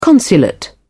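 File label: "consulate", British English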